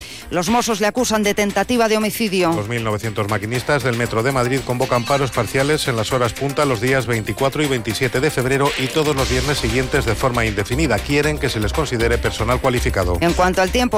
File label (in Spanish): Noticias Mediodia recorte